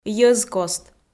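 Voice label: "йӧзкост", Komi, female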